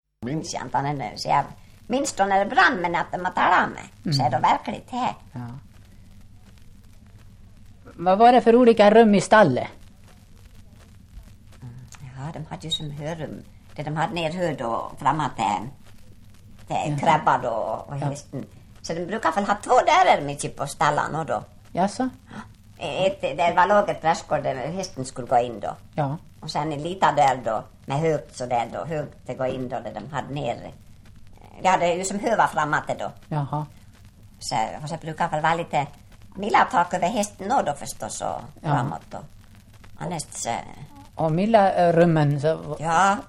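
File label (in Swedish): Ål Dalarna, dialekter, Svenska sagor; Folkminnen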